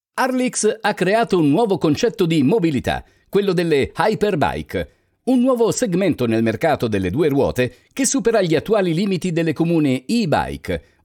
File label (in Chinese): 意大利语男 外语 小语种